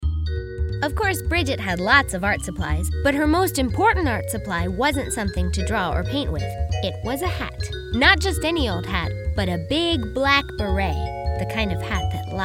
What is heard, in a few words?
Narration quirky